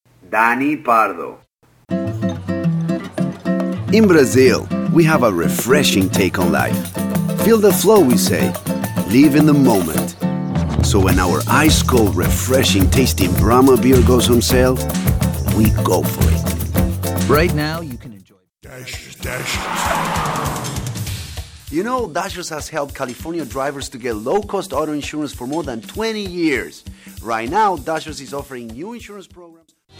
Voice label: eng vo; Voice Demo